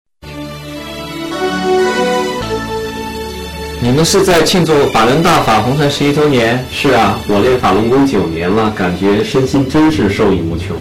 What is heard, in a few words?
ad mandarin; radio